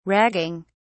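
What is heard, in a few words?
"ragging", British English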